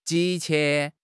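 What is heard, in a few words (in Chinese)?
"急切", Chinese; HSK6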